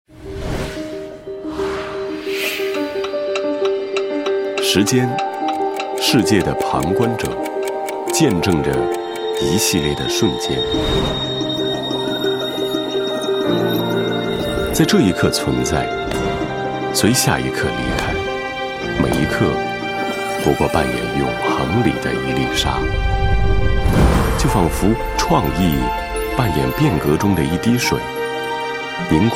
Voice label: 男 宣传片 客观自然; 2017广告年鉴

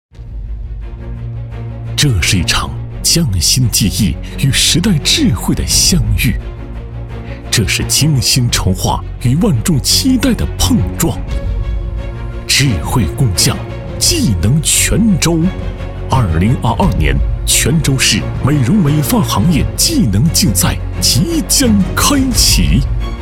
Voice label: 男 专题; 技能泉州

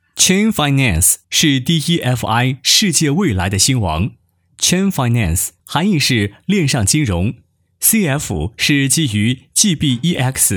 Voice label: 男 课件解说 项目介绍; ChainFinance; 项目介绍样音

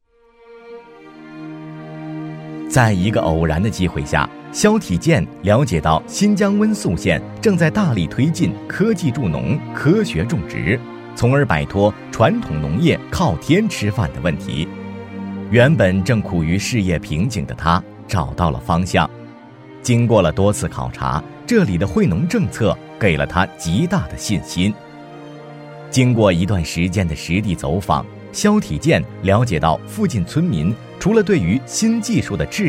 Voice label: 男, 人物专题

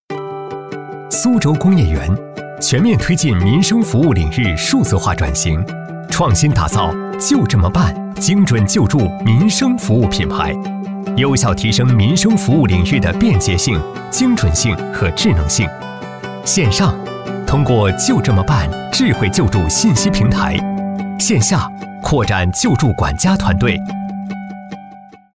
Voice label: 男 科技感

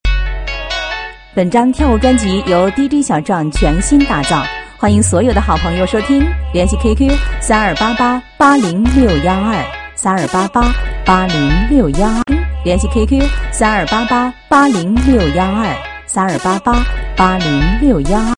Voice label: 女 广告 轻松广告

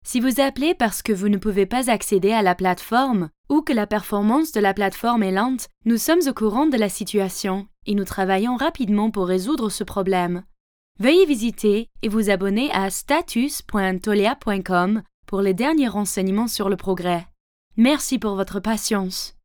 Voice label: 法语女, 干音

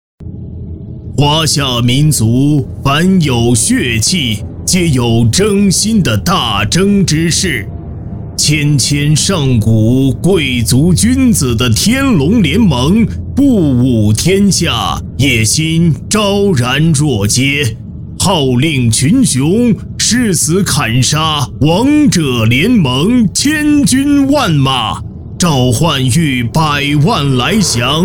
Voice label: 男 模仿 游戏 前序介绍 神秘低沉

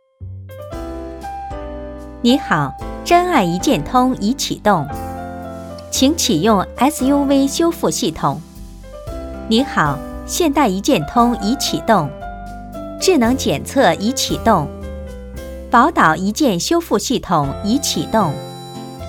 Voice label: 女 语音提示 电动车

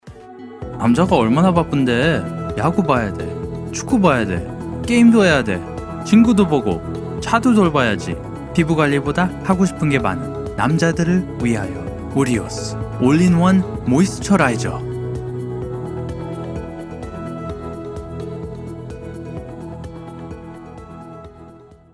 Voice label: 韩语男-轻快宣传片

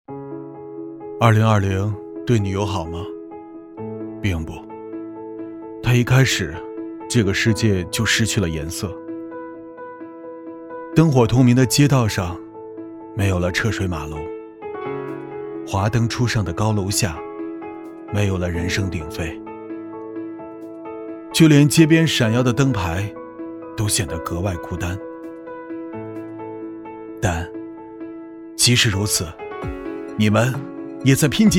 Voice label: 男-内心独白; 热爱生活

